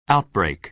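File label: "outbreak", American English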